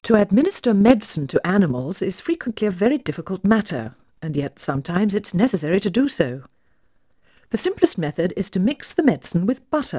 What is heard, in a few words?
Female speech English Mono output noised, 3bit